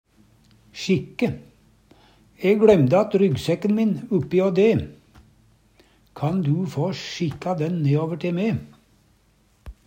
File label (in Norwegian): "sjikke", Numedalsmål; E gLøymde att ryggsekken min uppi jå de. Kan du få sjikka dæn neåver te me?